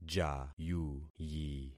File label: "jayuyi", concat syllables; Affixing experiment